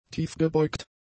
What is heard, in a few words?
"tiefgebeugt", German; adjectives